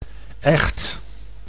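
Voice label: "echt", Dutch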